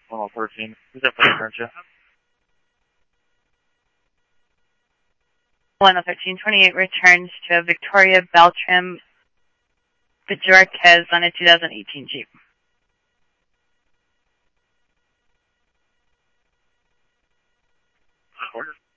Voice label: police, scanner audio; SCTSDLE PD - PD2; ScottsdalePolice; ThompsonPeakHigh